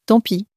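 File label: "tant pis", French, female; flashcards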